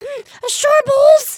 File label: talk scared